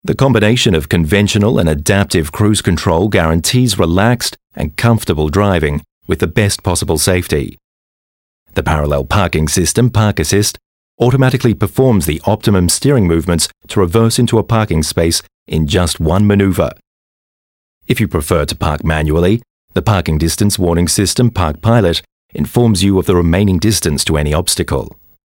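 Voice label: Australian elearning; sprecher; englisch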